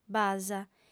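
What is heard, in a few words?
"basa", female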